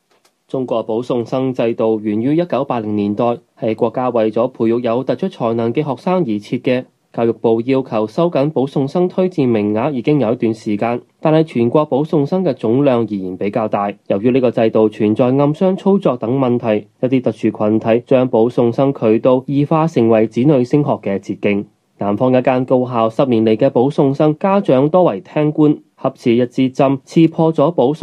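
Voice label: Chinese Cantonese, male; voice over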